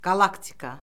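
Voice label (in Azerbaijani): "qalaktika", Azerbaijani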